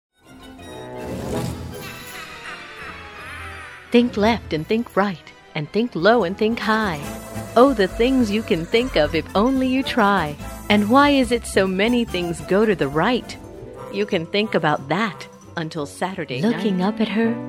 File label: Childrens Narration